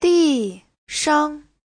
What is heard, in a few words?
"di4 shang5", chinese; 地上; mặt đất